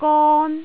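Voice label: "gon1", Cantonese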